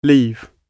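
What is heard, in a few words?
"leave", British English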